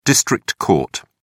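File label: "district court", British English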